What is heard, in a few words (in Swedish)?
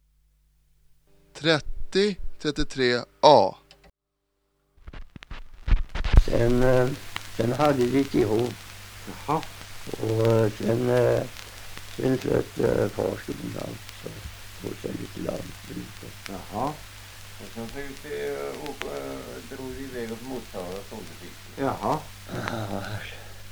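Ljung Östergötland, Svenska sagor, dialekter; Folkminnen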